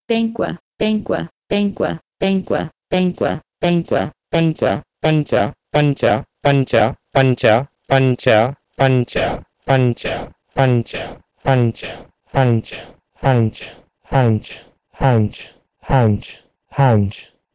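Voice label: Proto-Indo-European penkwe to Persian panj; eastern origins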